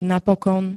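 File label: "napokon", Slovak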